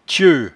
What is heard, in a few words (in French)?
"tieut", patois jura